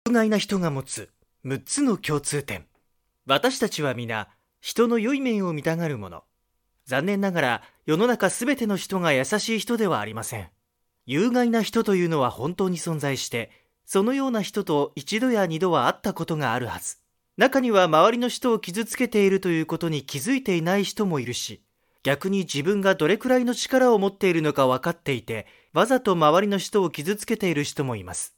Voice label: 日语男 年轻; 外语; 小语种

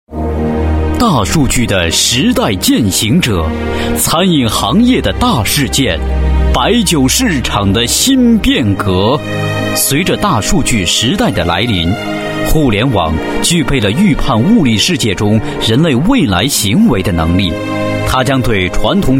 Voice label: 专题 企业 浑厚; 咱的酒

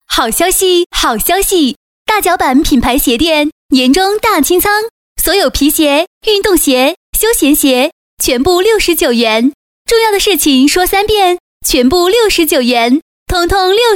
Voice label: 广告 促销 促销

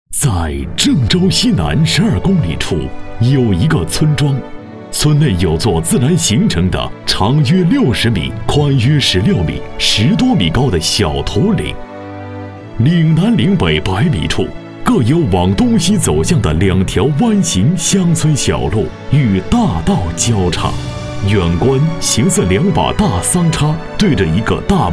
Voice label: 专题 政府 社区 抒情; 麦秸垛沟社区

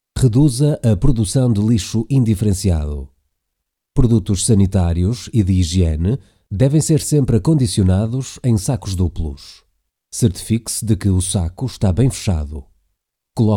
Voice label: 葡萄牙语男 外语 小语种

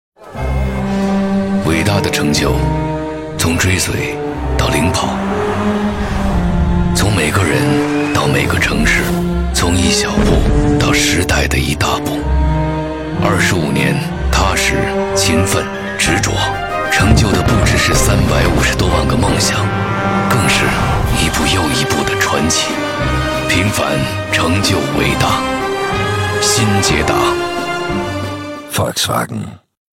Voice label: 广告 汽车; 新捷达上市

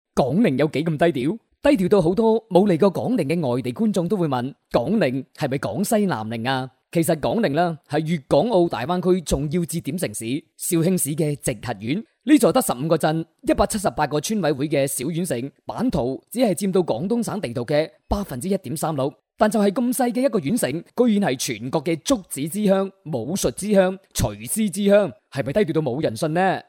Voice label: 宣传片 病毒 粤语, 男粤; 飞碟式; 广宁

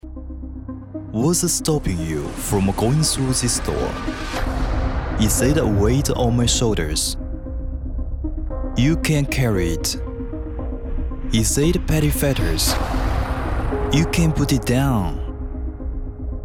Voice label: 男英 广告 品牌形象 高端品质; 英语

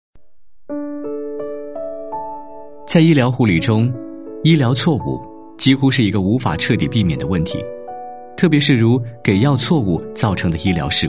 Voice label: 多媒体 产品演示, 男国; 移动护理信息系统的应用

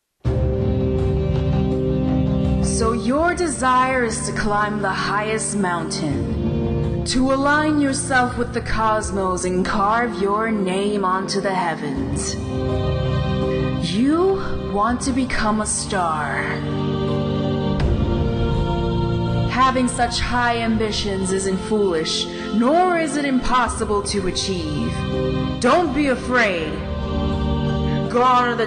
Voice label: 外籍女英 外语 美式英语 角色类

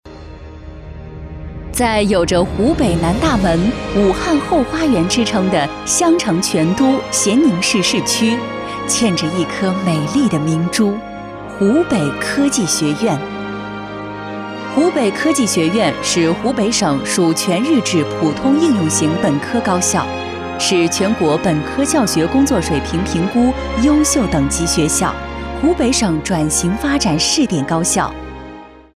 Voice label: 专题 学校 大气

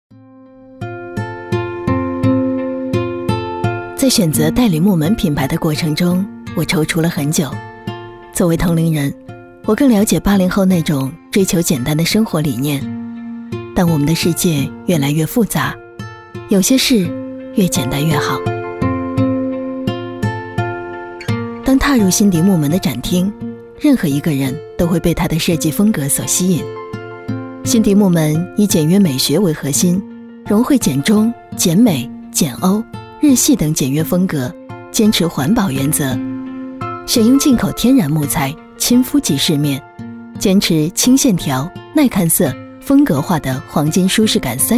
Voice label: 女 广告 家居家电 木门 讲述; 鑫迪木门

